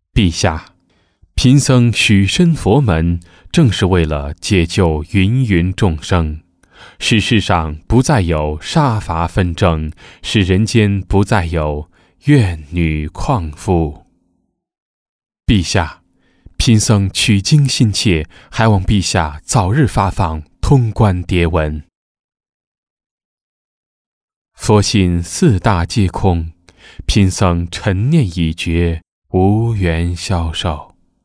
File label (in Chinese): 男 模仿; 模仿唐僧-女儿国部分